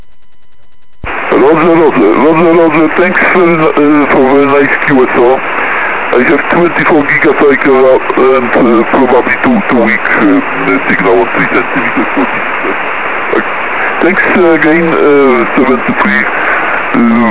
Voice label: 3cm ssb